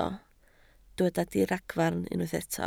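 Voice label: "diragfarn" Welsh, spoken word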